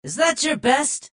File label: jeers; tf; redsun; adachi; spy